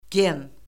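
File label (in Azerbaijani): "gen", Azerbaijani; Orfoqrafiya Lüğəti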